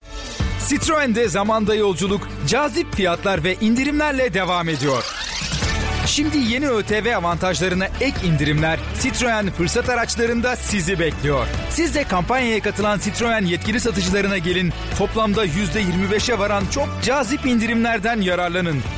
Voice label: turkish commercial